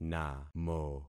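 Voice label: "namo", concatenated syllables; Affixing experiment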